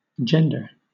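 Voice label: "gender", British English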